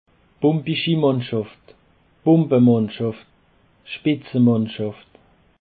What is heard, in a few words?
"bombichi", Alsace